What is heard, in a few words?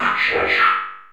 ODD VOX, ROBOT VOX; Zero-G Ambient 1